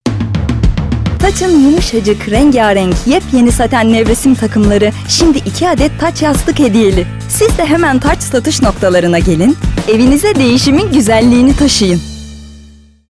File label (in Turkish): reklam; tac